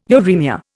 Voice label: "uremia", Indian

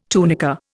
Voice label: "tunica", Indian

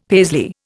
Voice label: "Paisley", Indian; Dictionary

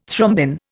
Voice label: "thrombin", Indian

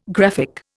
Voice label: "graphic", Indian

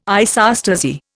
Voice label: "isostasy", Indian

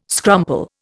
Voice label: "scrumple", Indian

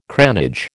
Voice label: "cranage", American

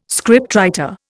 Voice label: "scriptwriter", Indian

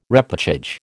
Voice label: "repÈchage", American

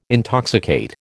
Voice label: "intoxicate", American

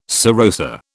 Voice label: "serosa", British

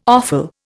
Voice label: "offal", Indian

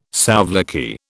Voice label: "souvlaki", British

